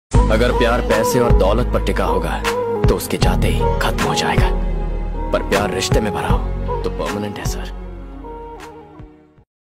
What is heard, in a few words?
dialogue ringtone; mobile phon